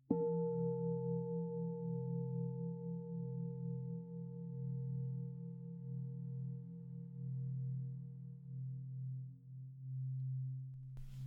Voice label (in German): Atemmeditation kurz; das achtsamkeitstraining